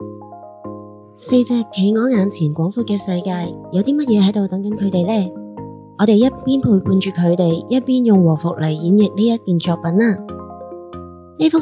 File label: Cantonese